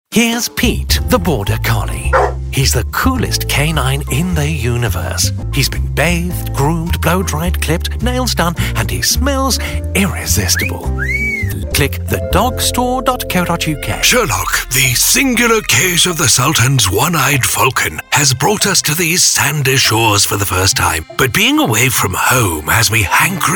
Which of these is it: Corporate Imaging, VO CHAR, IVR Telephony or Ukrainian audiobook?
VO CHAR